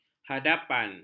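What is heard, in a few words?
"hadapan", Malay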